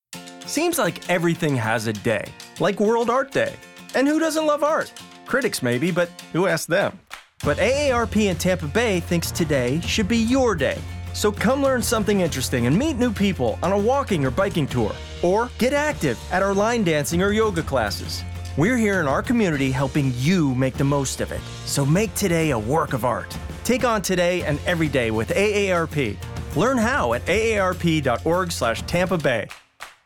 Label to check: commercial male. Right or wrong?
Right